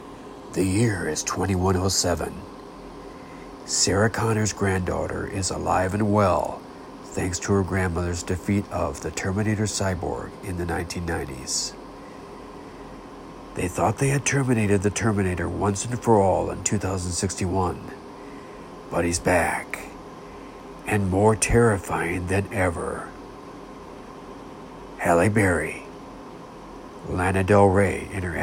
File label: Movie Trailer Voice; Terminator 5